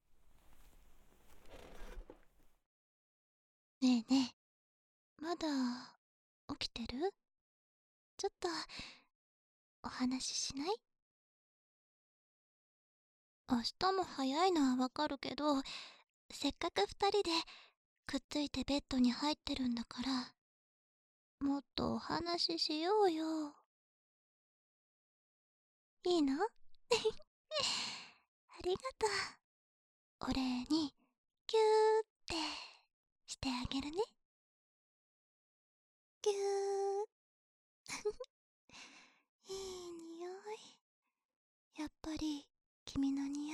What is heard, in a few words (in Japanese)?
添い寝, ASMR; ふふっ、いーっぱい幸せになろうね！